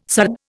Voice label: "sard", Indian